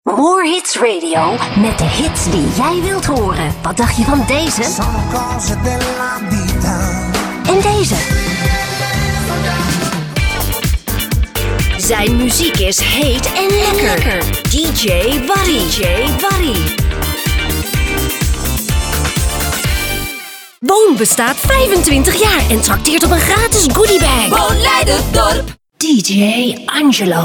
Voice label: jingles promo

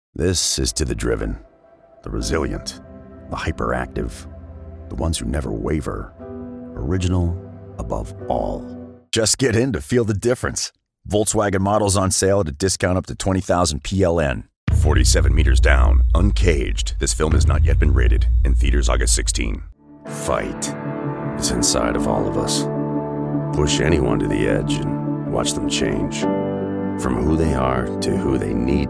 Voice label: deep male voice

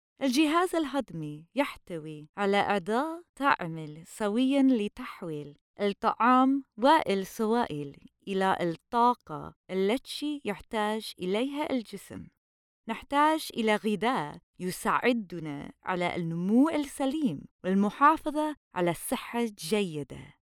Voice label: Digestive System Arabic E-Learning

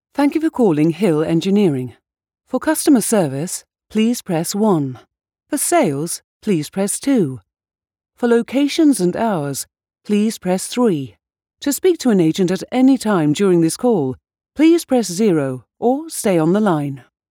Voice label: IVR Corporate RP

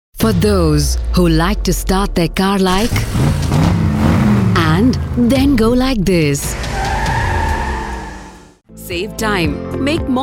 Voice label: English

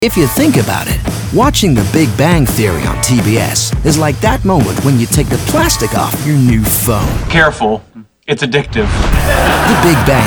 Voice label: Promo Demo

